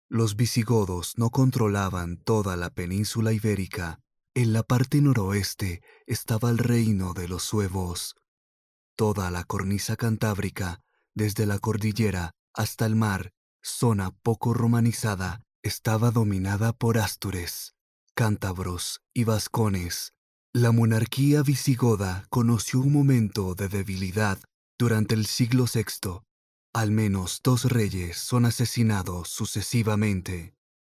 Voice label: colombian voiceover locutor colombiano